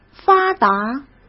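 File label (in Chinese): "发达", Mandarin Chinese; hsk; chinese flashcards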